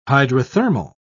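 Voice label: "hydrothermal", British English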